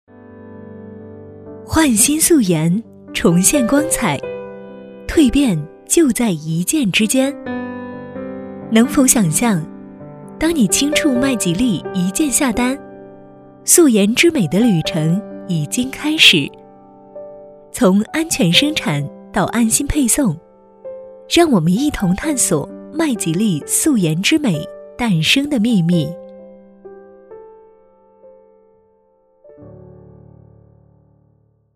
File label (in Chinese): 女, 化妆品广告; 麦吉丽